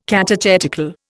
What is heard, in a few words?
"catechetical", Indian